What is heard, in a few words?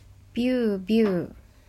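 "byuu byuu", Japanese; ビュービュー – byuu byuu; Strong and fast wind.; manga onomatopoeia sound effects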